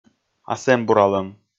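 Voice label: "асэмбуралӧм", Komi, male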